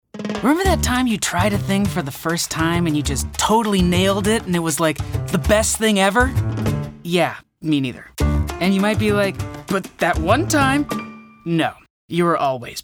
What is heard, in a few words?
COMMERCIAL